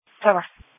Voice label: Police Tactical Encrypted